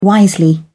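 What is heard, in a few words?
"wisely", English, British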